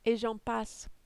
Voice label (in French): "et j en passe", French